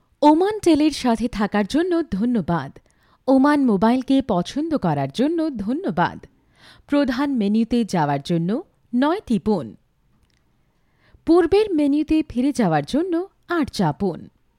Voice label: IVR On Hold Voicemail Greetings

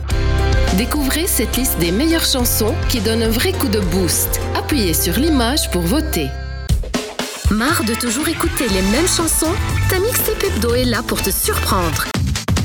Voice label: Explainer Video Voice Overs

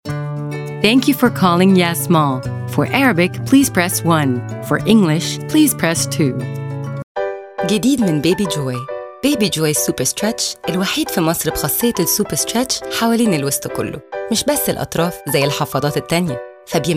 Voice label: Multilingual IVR On Hold Voicemail Greetings

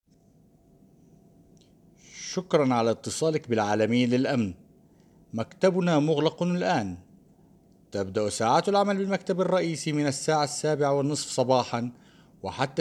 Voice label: Arabic IVR On Hold Voicemail Greetings

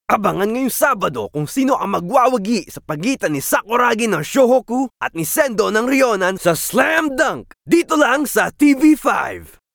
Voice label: Corporate Narrations

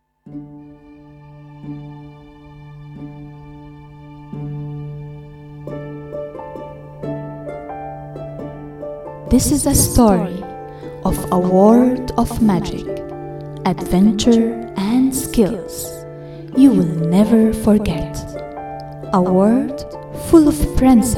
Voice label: English Explainer Video Voice Overs

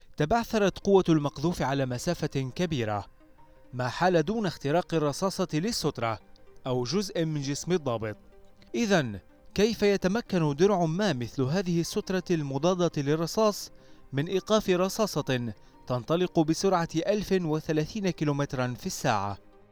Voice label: Arabic Explainer Video Voice Overs

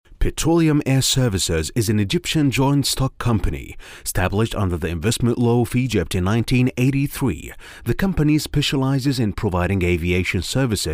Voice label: English Explainer Video Voice Overs

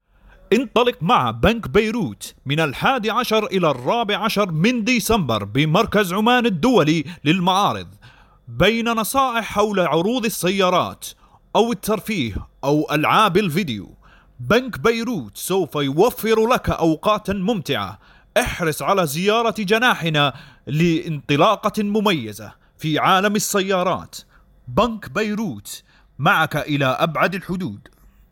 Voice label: Radio TV Commercial Voice

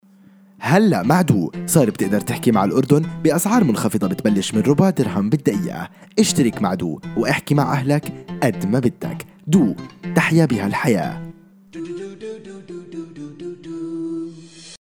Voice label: Arabic Radio TV Commercial Voice

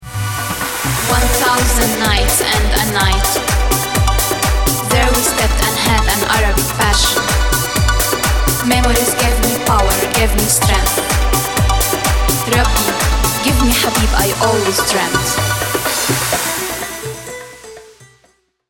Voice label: English Narration Voice Overs

